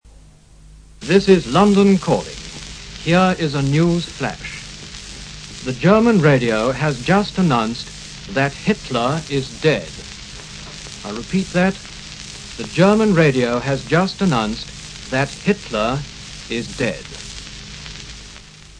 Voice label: Radio Broadcast; great speeches; Adolf Hitler Is Dead